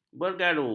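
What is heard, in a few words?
"bergaru", Malay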